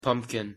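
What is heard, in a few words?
"pumpkin", English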